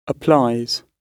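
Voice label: "applies" British English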